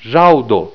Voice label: "jaudo", esperanto

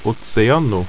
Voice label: "oceano", Ido

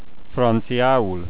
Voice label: "french", hungarian

